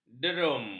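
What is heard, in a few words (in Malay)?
"derum", Malay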